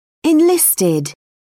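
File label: "enlisted", British English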